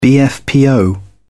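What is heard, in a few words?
"bfpo", British English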